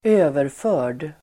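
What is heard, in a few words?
"överförd", Swedish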